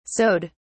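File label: "sewed", British English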